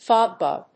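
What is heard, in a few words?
"fogbow", English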